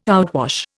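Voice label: "outwash", Indian English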